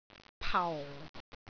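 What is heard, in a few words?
"pau4", Cantonese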